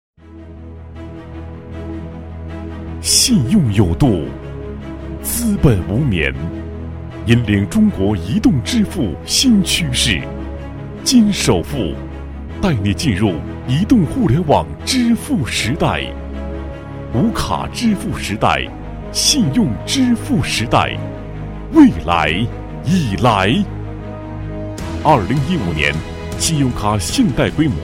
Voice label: 男 专题 信用卡信贷, 国语男声配音

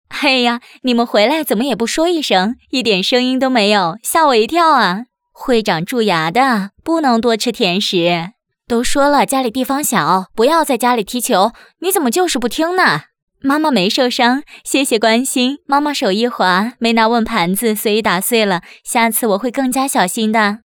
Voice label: 角色塑造妈妈

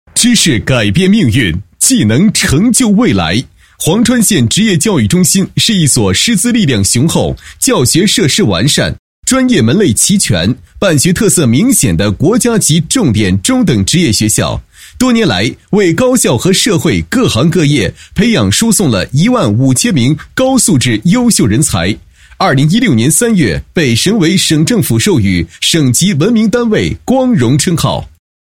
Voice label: 男 教育专题 职业教育中心招生宣传; 潢川县职业教育中心招生宣传

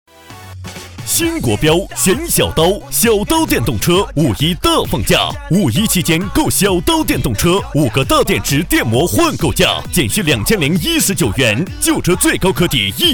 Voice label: 男 激情叫卖广告 电动车; 小刀电动车

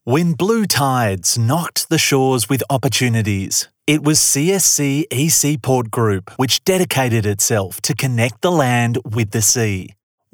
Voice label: 宣传片, 解说词, 英文; 筑港兴邦

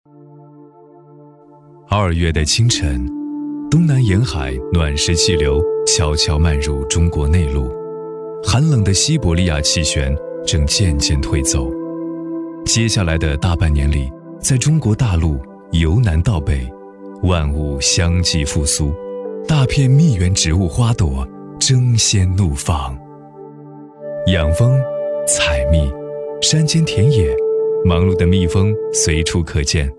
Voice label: 男 自然 讲述 纪录片; 蜂蜜传说

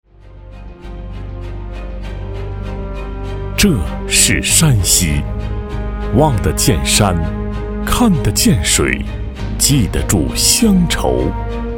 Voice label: 男, 专题; 山西全域旅游铁路行

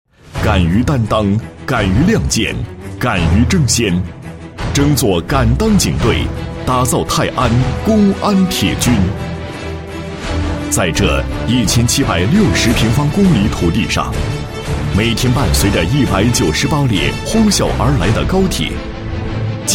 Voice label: 男, 公安宣传片; 岱岳区交警支队宣传片

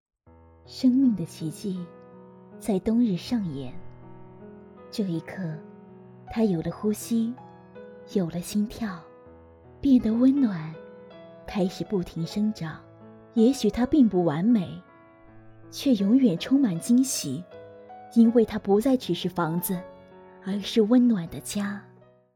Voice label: 女 广告 家居 温柔沉稳; 宜家家居

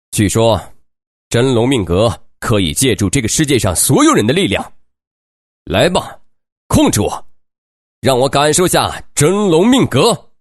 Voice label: 男 角色 游戏 男

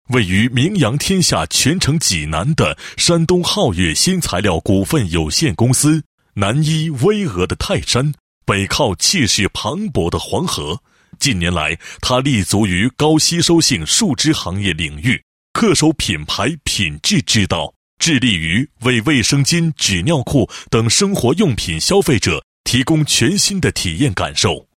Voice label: 男 公司企业 宣传简介; 昊月新材料

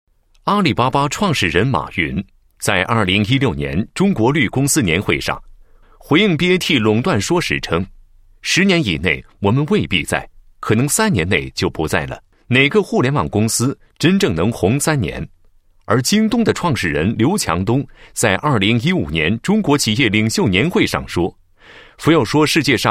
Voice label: 男 科技解说 互联网专题

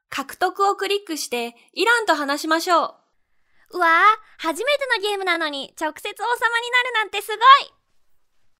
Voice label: 日语女 青春活力

